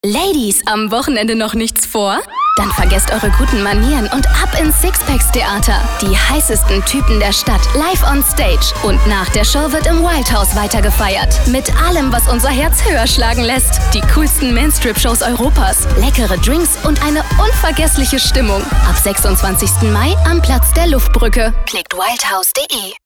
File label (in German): W Fremdtake Dynamisch